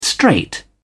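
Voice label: "straight", British English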